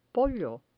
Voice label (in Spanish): "pollo", Spanish